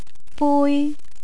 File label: "bui", Cantonese; cooking